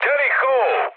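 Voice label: Serbian enemy detected mood high; speech; War Thunder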